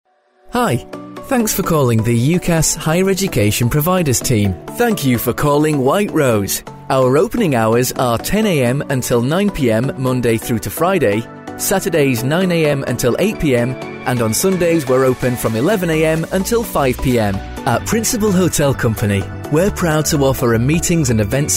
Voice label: on-hold

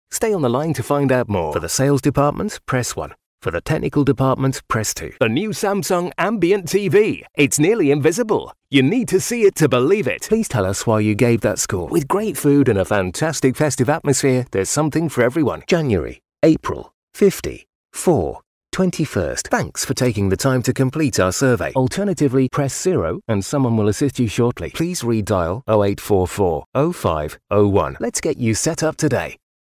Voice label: on hold

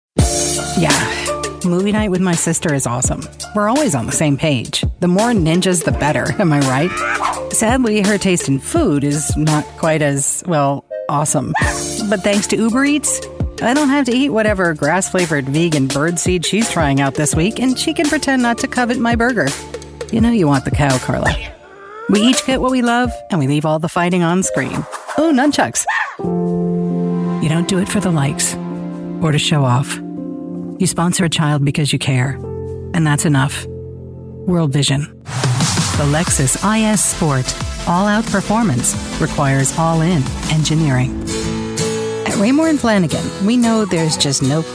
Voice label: american voice commercial

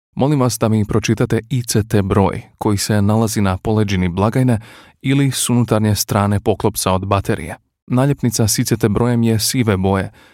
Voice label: croatian voiceover telephone

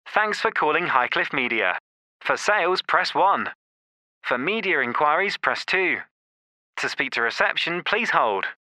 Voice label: ivr

